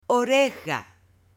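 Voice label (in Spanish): "oreja", female; CUERPO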